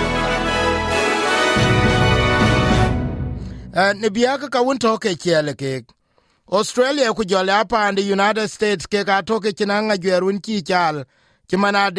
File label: dinka; SBS Dinka News 07/12/2022